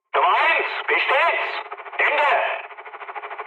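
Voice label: German chat, speech; War Thunder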